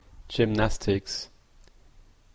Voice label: "gymnastics", en-ca

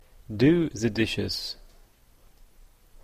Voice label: "do the dishes", en-ca

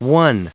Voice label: "one", en-us recite

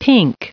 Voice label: pink, en-us

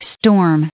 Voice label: "storm", en-us recite

